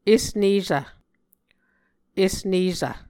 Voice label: "esnesv", Muscogee

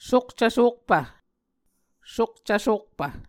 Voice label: "sukcv-sokpv", Muscogee

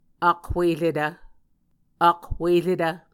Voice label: "akhueretv", Muscogee

